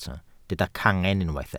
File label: "cangen", Welsh